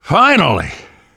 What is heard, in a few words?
safe spot ahead reaction, namvet, survivor voice; Left 4 Dead 2; left4dead2 dlc3